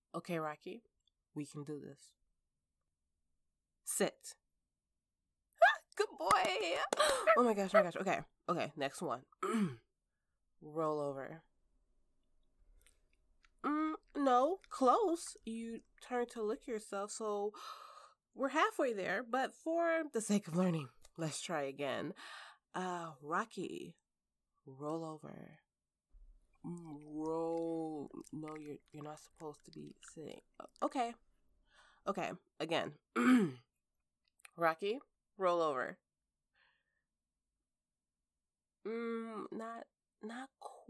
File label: Puppy Tricks, Cute, Girlfriend trains puppy